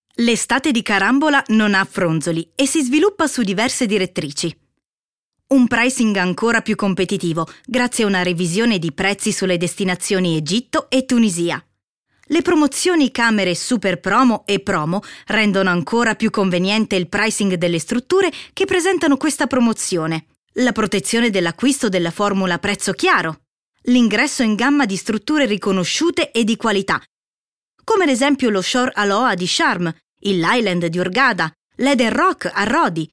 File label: female, Italian corporate